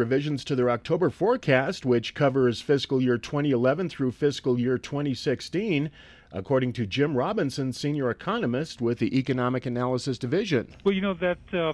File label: Wyoming radio news